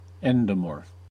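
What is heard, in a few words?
"endomorph", US English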